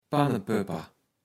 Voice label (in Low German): "Pannepöpper", Platt